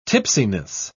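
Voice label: "tipsiness", British English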